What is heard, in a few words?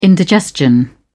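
"indigestion", British English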